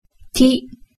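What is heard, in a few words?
"ti", Japanese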